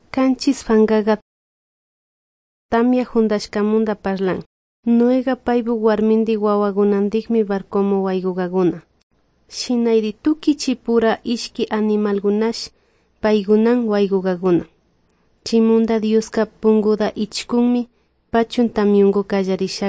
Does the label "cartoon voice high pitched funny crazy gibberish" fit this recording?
No